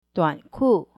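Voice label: "duanku" shorts, mandarin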